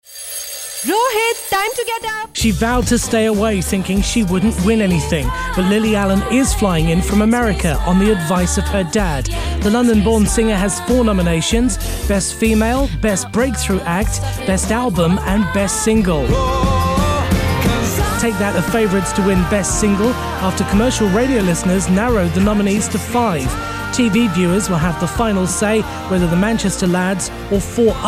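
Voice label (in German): British English, Kommentar Moderation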